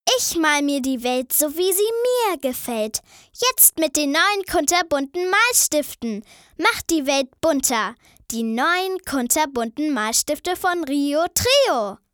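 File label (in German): Mädchen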